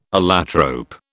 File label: "allotrope", British